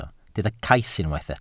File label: "cais", welsh